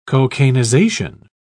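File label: "cocainization", British English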